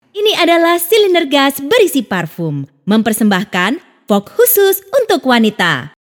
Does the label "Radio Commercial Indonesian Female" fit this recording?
Yes